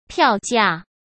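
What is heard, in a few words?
"票价", Mandarin Chinese; chinese-flashcards; hsk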